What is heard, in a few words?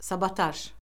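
"sabotaj", Azerbaijani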